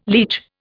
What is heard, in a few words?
"leach", Indian